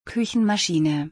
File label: Food maker in German